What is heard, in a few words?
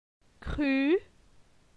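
"Cru", French; la syllabe u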